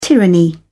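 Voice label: "tyranny", British English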